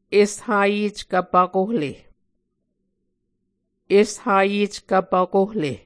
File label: "eshayeckv pvkohle", Muscogee; Mvskoke Words with Voicing Consonants; A Dictionary of Creek/Muskogee; SIL International